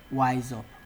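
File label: "wiseup", Nigerian Pidgin, male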